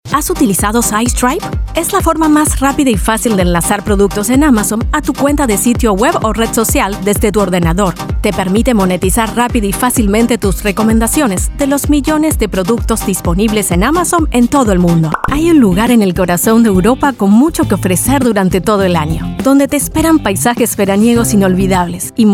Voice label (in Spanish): neutro, espanol neutro